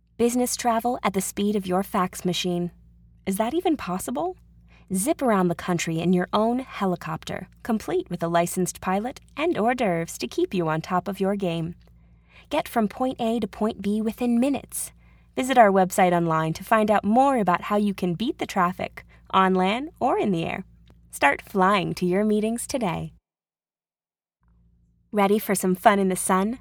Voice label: VO, Rode Mic